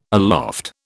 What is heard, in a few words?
"aloft", British